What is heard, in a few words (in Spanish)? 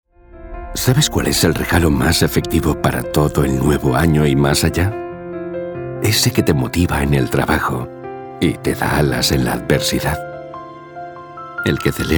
Espanol Espana Masculina Adulta